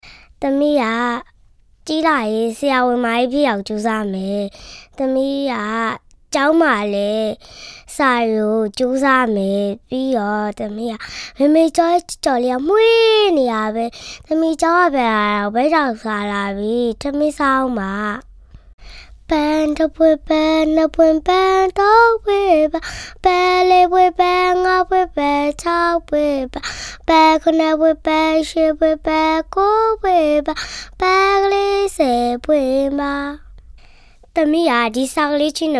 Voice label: Burmese Voice Sample Male Child

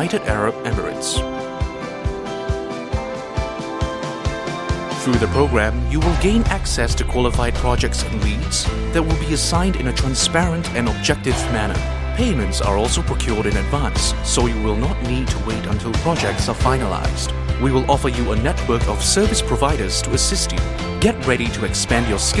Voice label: Asian English Interior Design

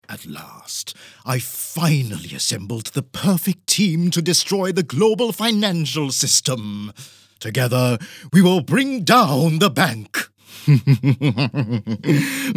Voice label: Asian English children animation

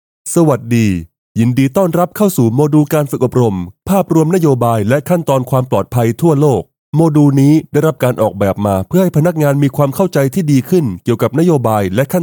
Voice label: Thai Male Narration General; TTS

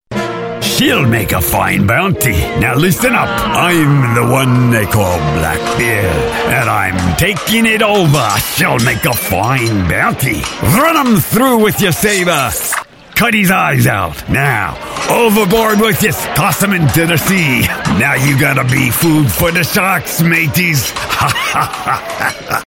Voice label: US English character pirate accent

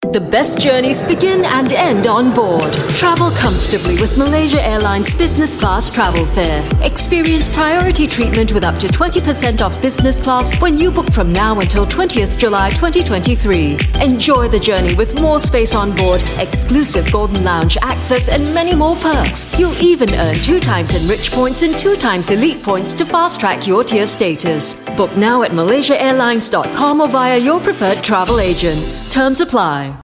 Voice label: Asian English; MAS BIZ CLASS

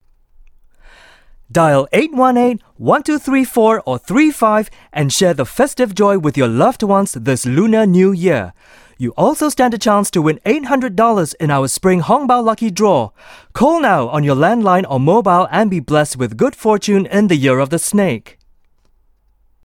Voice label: Asian English Sample

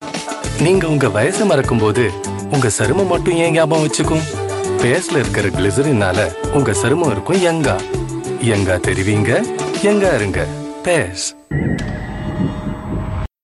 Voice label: Tamil Male Voice; India

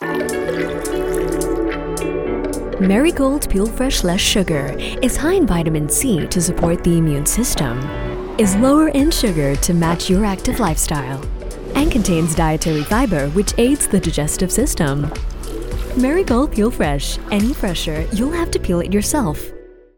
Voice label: Asian English peel fresh lower sugar

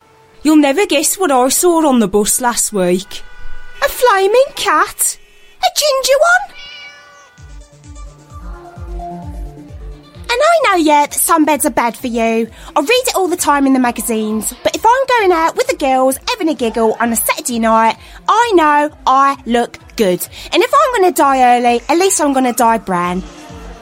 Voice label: UK English comedy characters

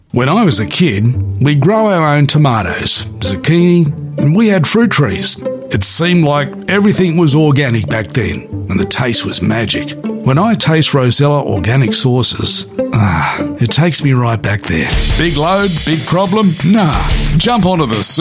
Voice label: AU English

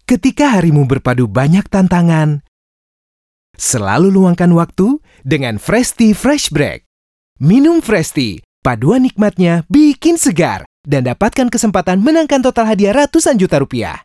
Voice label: Bahasa Indonesia; Frestea Fresh Break

